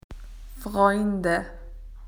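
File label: "friends", Australian English; PictureDictionary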